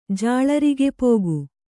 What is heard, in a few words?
"ಜಾಳರಿಗೆಪೋಗು", Kannada